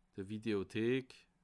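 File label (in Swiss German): "videothek", Bas Rhin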